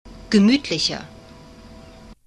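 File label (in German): "gemütlicher", German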